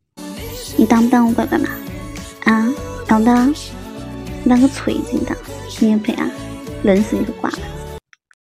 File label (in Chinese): 重庆方言